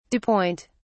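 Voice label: "dew point", British English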